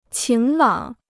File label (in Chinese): "晴朗", Chinese